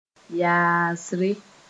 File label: "yaasre", Moore